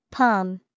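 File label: "palm", English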